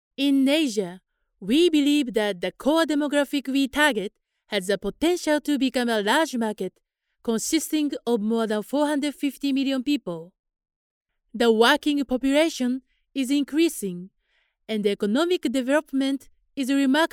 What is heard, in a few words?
female japanese voice over english